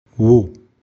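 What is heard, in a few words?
"Wu" Garhwali; She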